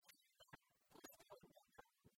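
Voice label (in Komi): "шондіа кос поводдя талун", Komi, female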